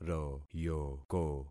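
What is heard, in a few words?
"royoko", concatenated syllables; Affixing experiment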